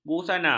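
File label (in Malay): "busana", Malay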